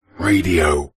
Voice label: multimedia radio ident voice male processed says radio